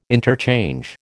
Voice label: "interchange", American